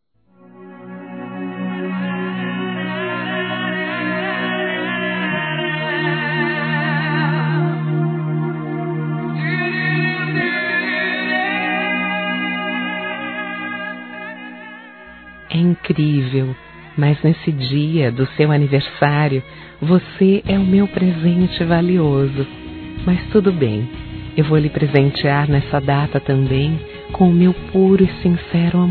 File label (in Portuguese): aniversario romantico, fem; Aniversários